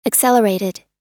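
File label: "accelerated", US English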